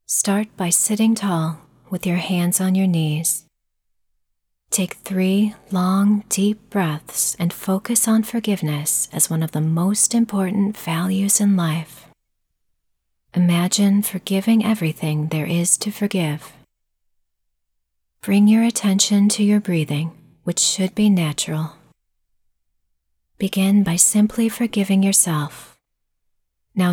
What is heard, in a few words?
Meditation Sample